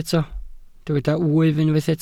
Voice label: "wyf", Welsh